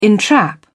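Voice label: "entrap", English, British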